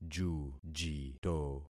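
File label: "jujito", concatenated syllables; Affixing experiment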